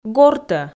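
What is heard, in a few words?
"гортӧ", Komi, female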